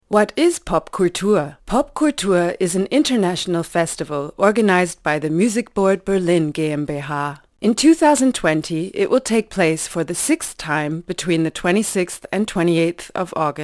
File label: english